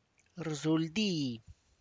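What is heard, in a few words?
tlacochahuaya, Zapotec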